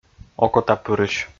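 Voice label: "окотапырысь", Komi, male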